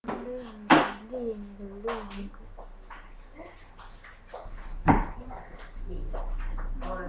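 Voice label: "ring", spoken word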